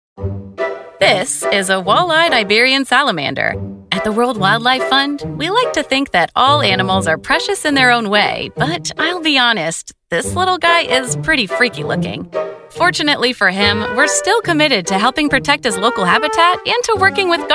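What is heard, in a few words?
EXPLAINER, Professional Female Voice Talent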